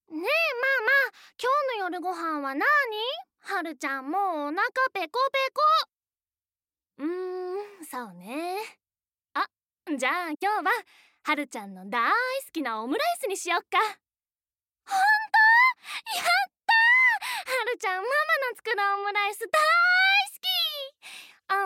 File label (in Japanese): セリフ 親子